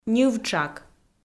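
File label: "ньывтшак", Komi, female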